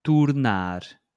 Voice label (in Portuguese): "tornar", portuguese